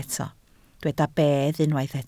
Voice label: "bedd", Welsh

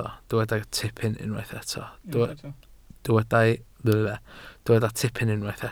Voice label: "tipyn", Welsh

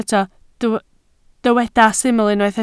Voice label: "syml", Welsh

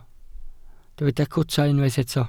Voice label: "cwta", Welsh

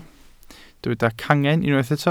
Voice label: "cangen", Welsh

